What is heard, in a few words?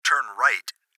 Gps Voice, Male; Turn Right; Recorded Voice